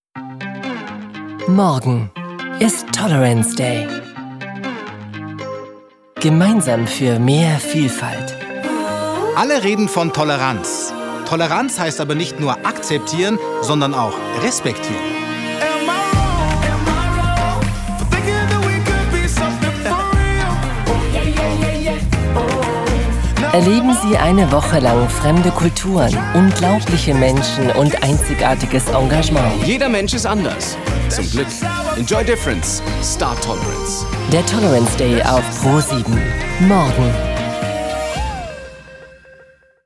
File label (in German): Werbung Freundlich